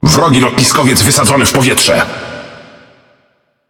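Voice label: Speech Destroy Enemy Aircarrier, Polish; World Of Warships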